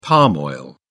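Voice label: "palm oil", British English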